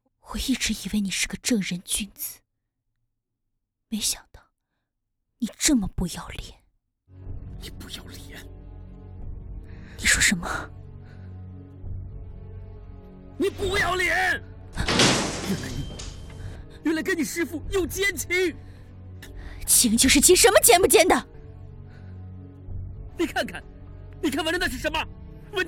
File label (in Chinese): 角色 大武生; 席木兰